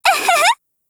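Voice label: MOOD, Japanese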